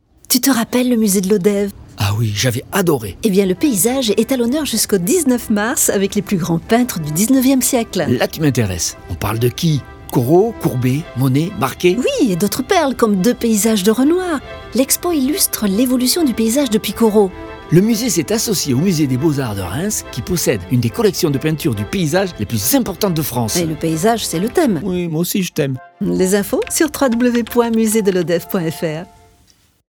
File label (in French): spot; lodevois et larzac